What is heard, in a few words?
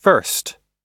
"first", US English